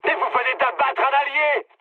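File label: French player killed ally mood high; War Thunder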